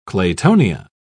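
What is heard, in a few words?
"claytonia", English, uk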